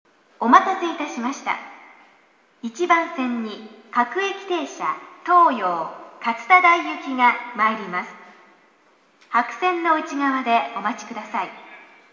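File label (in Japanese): 接近, 駅放送; 1番線; 旧放送; yachiyo-chuo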